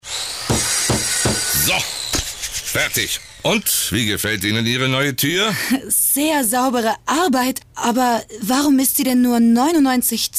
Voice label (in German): Sprecherin, Handwerker; WERBUNG; FIAT: Handwerker Doblo Cargo